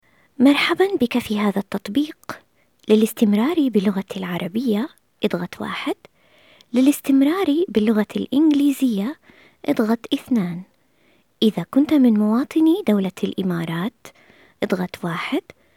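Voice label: Arabic Female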